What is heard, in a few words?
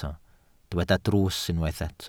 "drws", Welsh